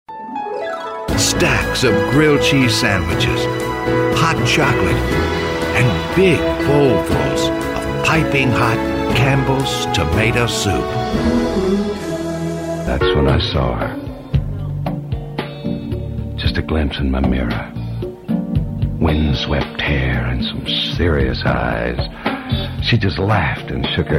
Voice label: Commercials, Voiceover